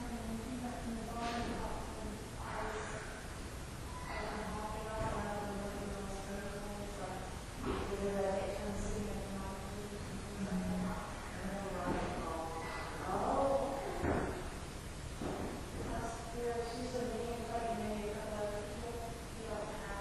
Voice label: Unexplained Whisper; Case 023 Major Graham Mansion; Childrens Room; Olympus Plug